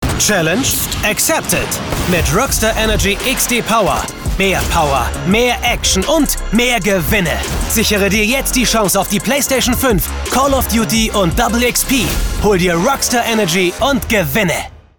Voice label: Werbung TV, Commercial, German; Rockstar Energy

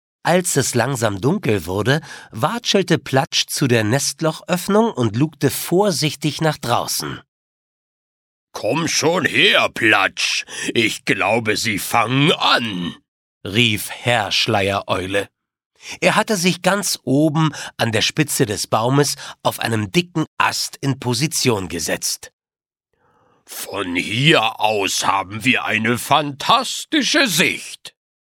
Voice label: Die kleine Eule, Audiobook (Hörbuch), German

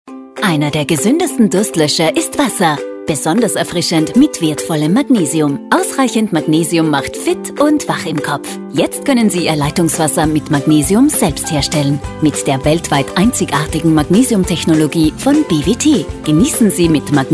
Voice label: Sprecherdemo TV Spot; BWT

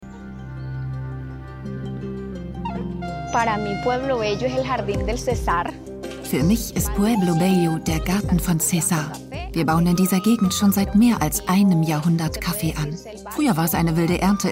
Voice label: Reportage Overvoice

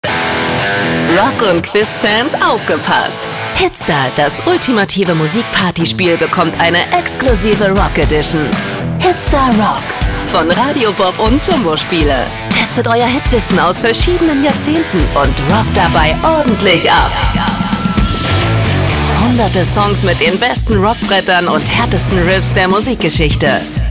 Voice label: Station Voice, German; Station Voice | Radio Bob; Hitster

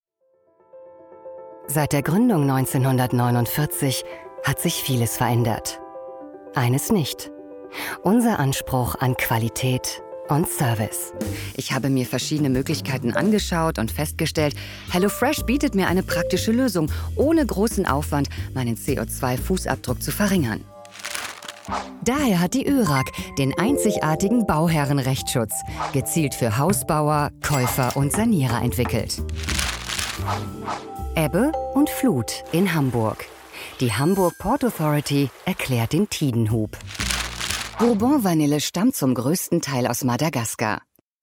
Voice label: Image Erklaerfilm

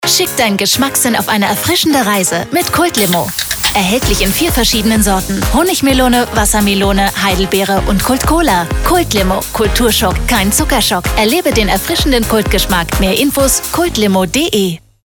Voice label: Werbung Kult Limo, Commercial (Werbung), German

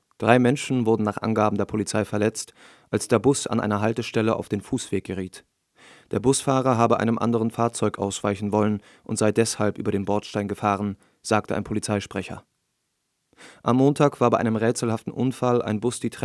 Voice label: Nachrichten; LOFT VOICES

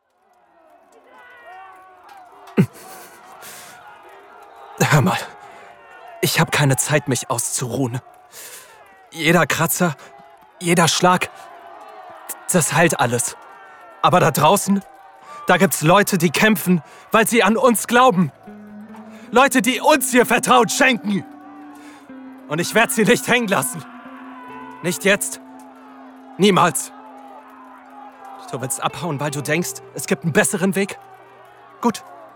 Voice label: Spielszene Junger Held, Heldenhaft, German; GAME; Scene, Trick; LOFT VOICES

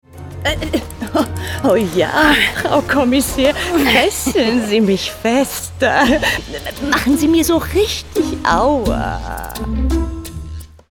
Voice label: Hoerspiel; Kaffesatz; Tarot; Tod; Dr Gruenspan

